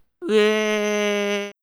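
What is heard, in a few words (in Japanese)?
"うぇ", Japanese syllable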